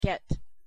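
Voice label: pronunciation "get", English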